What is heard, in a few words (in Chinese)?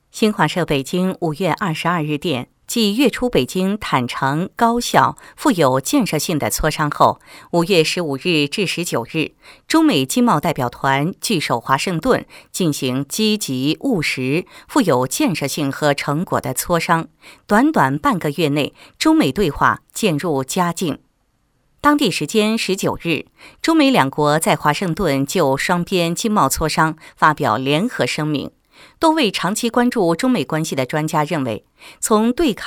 专题 新闻; 透视中美华盛顿